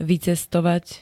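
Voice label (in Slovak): "vycestovat", Slovak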